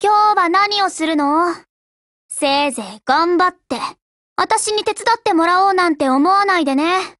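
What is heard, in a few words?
HELLO Japanese, Voice; GF Alarm; Destroyer buhuo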